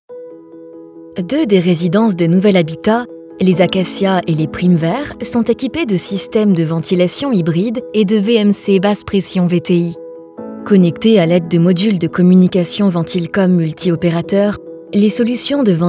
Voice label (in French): FILM INSTITUTIONNEL EXPLICATIF PRO INDUSTRIE; VENTILCOM